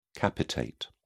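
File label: "capitate", English, British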